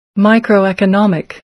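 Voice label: "microeconomic", American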